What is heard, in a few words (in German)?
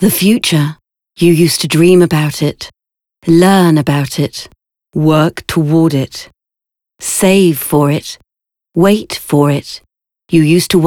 Autowerbung